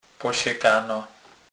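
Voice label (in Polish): "posekana", Kurpie dialect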